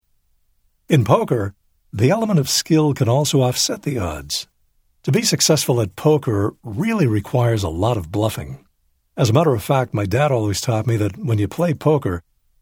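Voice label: male nonfiction, narrator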